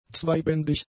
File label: "zweibändig", German; adjectives